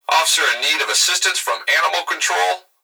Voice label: Emergency Human Male Voice Police Radio Officer In Need Of Assistance From Animal Control; emergency effects